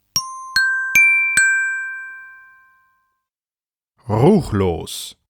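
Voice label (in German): "ruchlos", German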